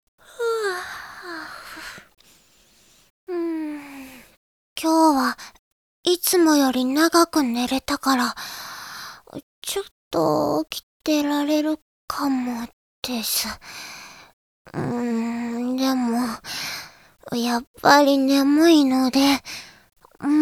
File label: girl